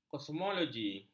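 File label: "kosmologi", Malay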